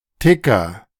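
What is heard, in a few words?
"Ticker", German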